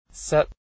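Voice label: sit, Ulster Antrim traditional English dialect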